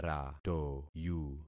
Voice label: "ratoyu", concat syllables; Affixing experiment